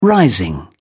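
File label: "rising", British English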